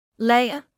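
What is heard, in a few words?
"layer" English, British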